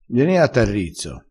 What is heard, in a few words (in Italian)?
"arrizzassi", crespinese